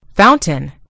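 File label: "fountain", British English; gcompris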